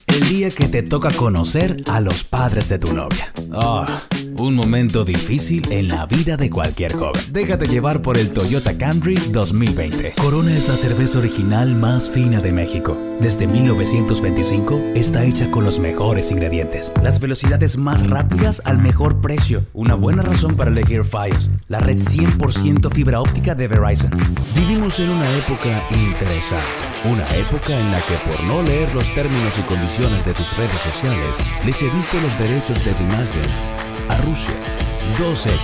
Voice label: voiceover foreign language; New York; spanish